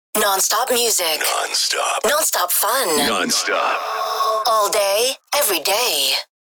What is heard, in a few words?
Vocals radio voice sweeper non stop fun